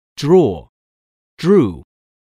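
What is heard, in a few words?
"draw", English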